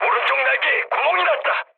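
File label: Korean right wing mood low; War Thunder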